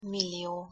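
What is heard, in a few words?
"millio", Hungarian pronunciation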